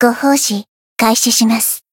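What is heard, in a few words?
Voice encount, Japanese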